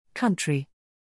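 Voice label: "country", English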